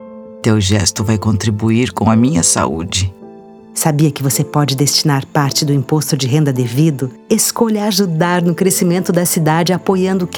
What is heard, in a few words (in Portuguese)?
Spot, Idoso; Camara Caxias do Sul